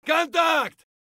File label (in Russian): "kontakt" scream; tarkov